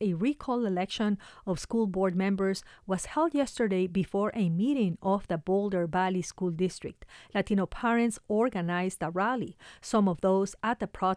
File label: Noticias Bilingual